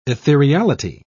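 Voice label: "ethereality", British English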